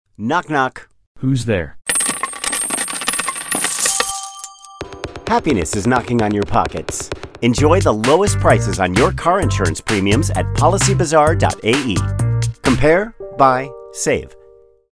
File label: English spot, radio